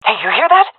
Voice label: heard sound, seal fem; vo; Counter Strike Model Pack; CS:GO

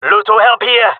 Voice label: request help, jungle male; agents

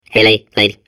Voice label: babble; Portal 2

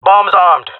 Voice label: bomb planted, jungle male; vo; agents; Source Model Packs; Counter Strike Model Pack; CS2

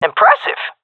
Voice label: compliment, vo fem; agents; Source Model Packs; Counter Strike Model Pack; CS2

